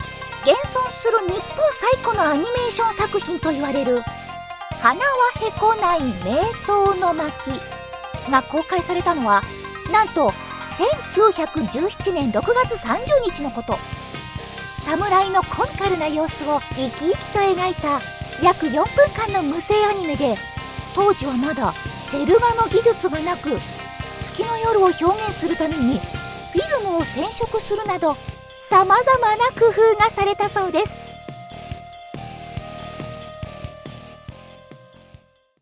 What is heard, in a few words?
japanese animation